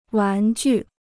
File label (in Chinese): "玩具", Chinese